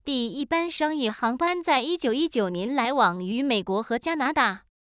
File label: mandarin chinese concatenative